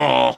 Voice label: surprise; king; Politburo